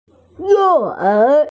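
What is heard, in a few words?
"you4er2", Chinese, F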